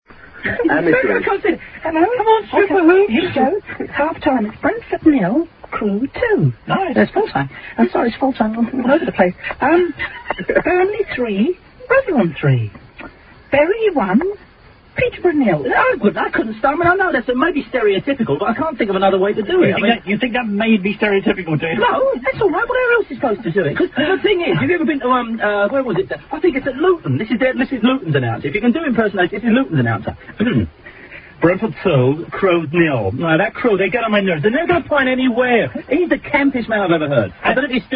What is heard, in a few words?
tannoy